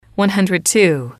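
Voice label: one hundred two, female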